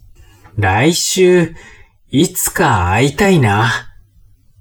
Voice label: man